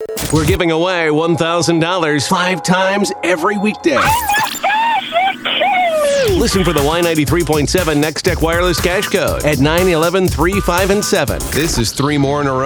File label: radio imaging